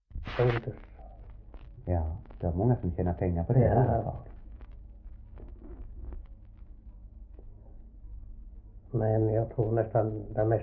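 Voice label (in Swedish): Oderljunga Skåne, Svenska sagor dialekter; Folkminnen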